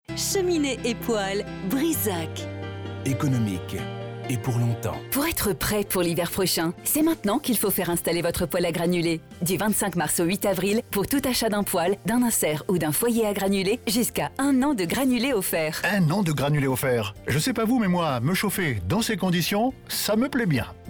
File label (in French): Spot radio